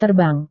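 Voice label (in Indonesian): "terbang", Indonesian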